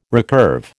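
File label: "recurve", American English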